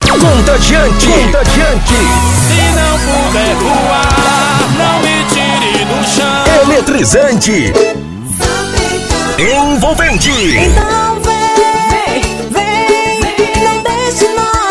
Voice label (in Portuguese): spot tv forró